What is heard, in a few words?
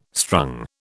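"strung", British English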